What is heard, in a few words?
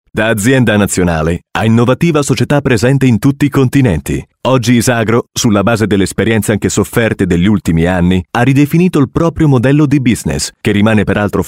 Guida CORPORATE